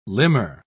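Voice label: "limner", British English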